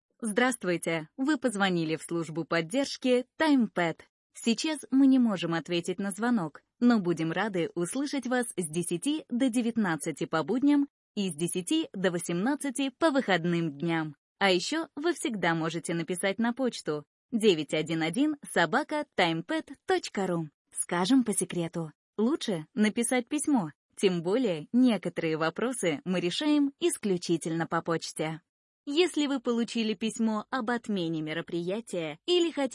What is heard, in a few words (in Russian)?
avtootvetchik